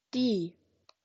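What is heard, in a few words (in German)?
"die", German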